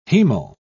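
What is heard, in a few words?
"hemal", British English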